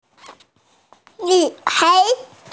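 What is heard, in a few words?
"girl", hearing impaired; nv3hai2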